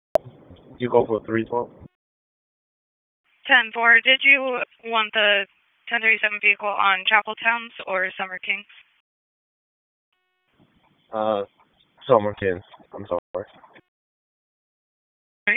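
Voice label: Police Northeast District Dispatch, p25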